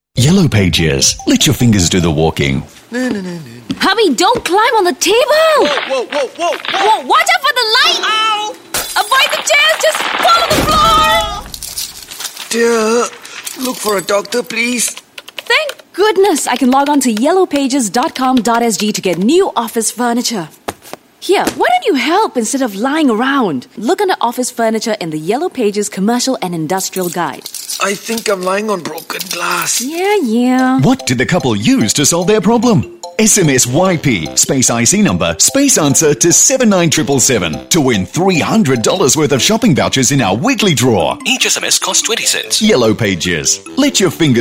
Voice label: English Singaporean Female; YellowPages